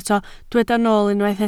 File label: "nool", Welsh